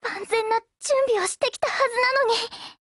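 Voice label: BREAK Japanese, Voice; GF Alarm; Danuvia 43M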